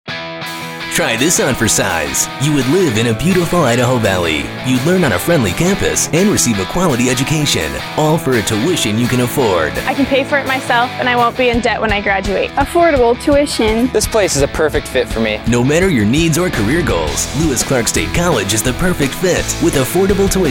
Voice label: Radio; LCSC; Affordable Tuition

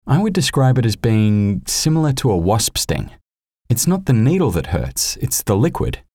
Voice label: Natural

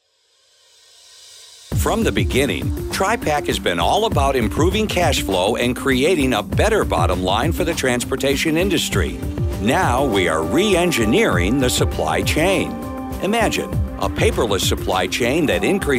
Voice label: Narration Samples

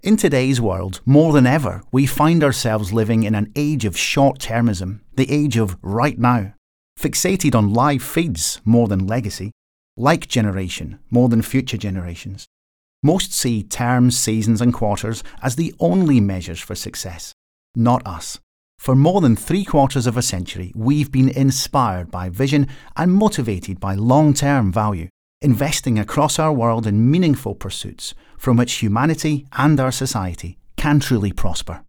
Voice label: Corporate Recruitment Vid

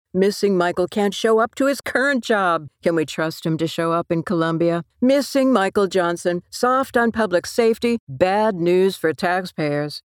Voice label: From an Angry Tax Payer Ad

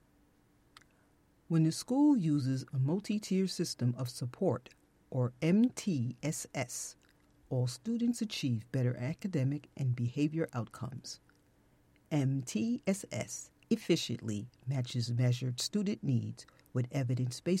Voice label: E Learning

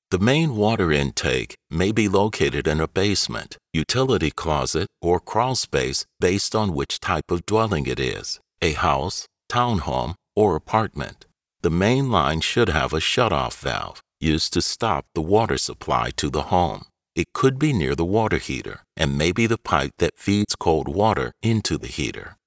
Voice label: Instructional Video

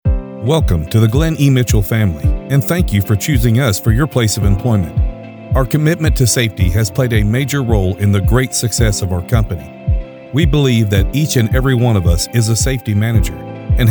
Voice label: Corporate Narration, male voice over

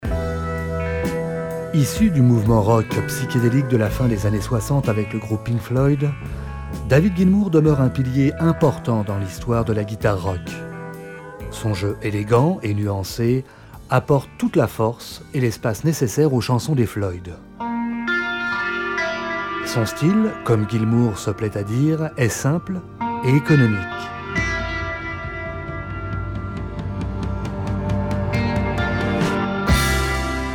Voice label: voiceover radio show; fd radio show

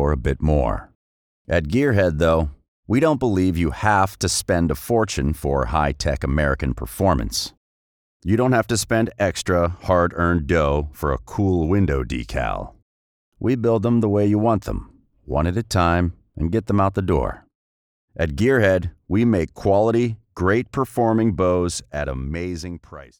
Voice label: authoritative deep male voice over archery; gearhead archery

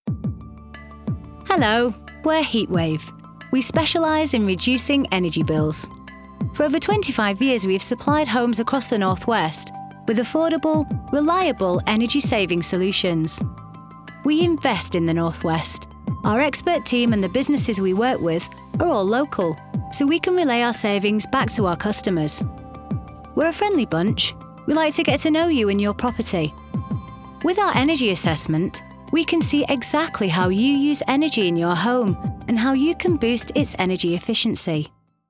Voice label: Corporate Explainer

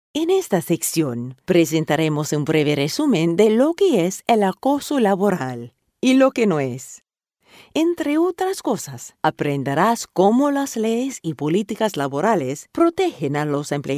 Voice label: Spanish Demo

